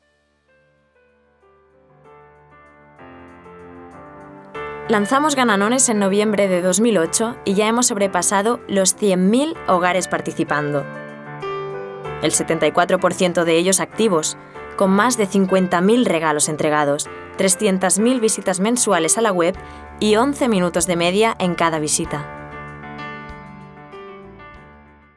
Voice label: CORPORATIVO ESP

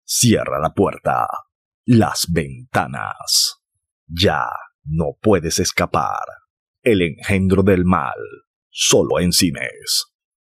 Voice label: TRAILER TERROR

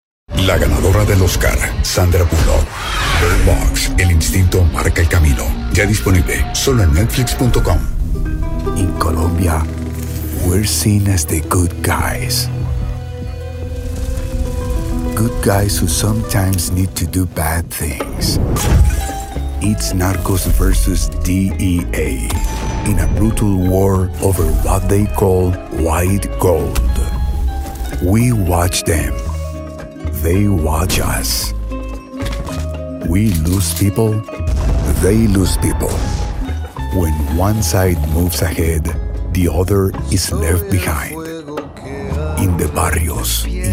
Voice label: Trailer

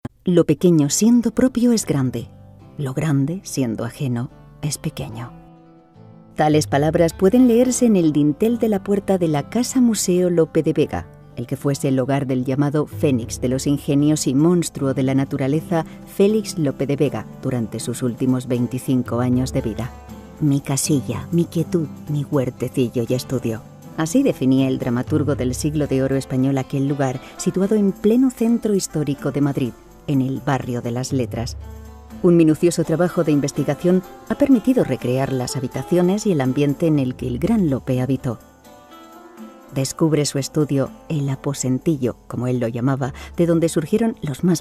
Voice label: documen

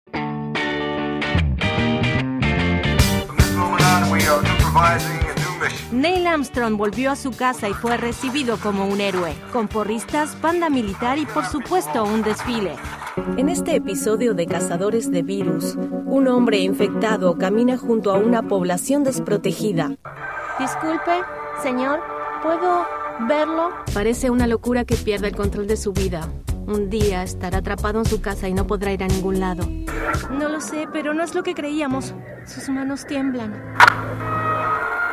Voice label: de DOBLAJE

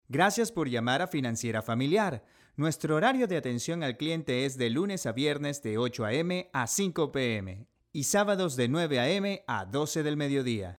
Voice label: contestadora

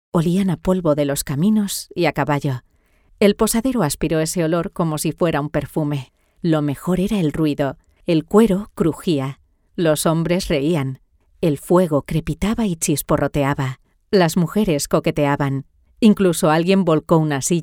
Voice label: demo audiolibro

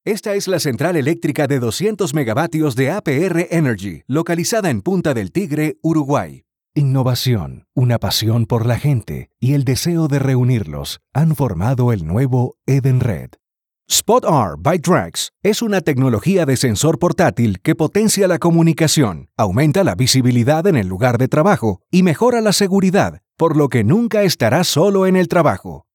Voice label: CORPORATIVO 3 ESTILOS

